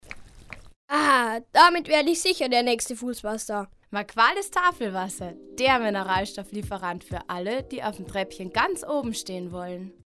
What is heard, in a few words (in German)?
Werbung Reminder